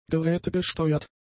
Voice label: "gerätegesteuert", German; adjectives